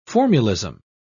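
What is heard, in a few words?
"formulism", English, British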